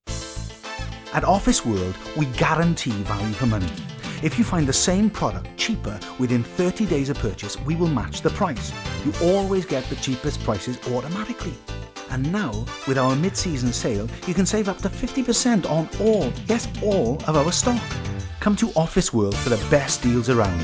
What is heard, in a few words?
Announcer, Youthful, Strong Welsh; OFFICE WORLD